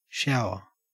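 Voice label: "shower", Australian English; pronunciations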